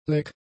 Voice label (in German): "leck", German; adjectives